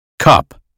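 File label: "cup", American English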